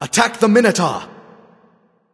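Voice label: vox attack male; smite; ram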